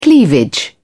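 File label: "cleavage", English, uk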